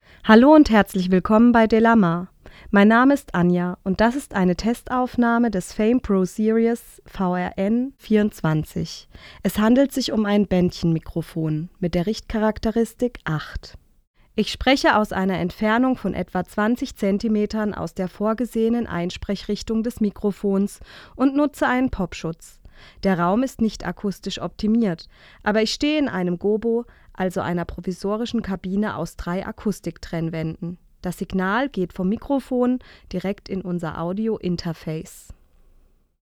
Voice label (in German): sprache f; fame pro series vrm-24